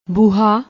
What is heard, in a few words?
"booha", Punjabi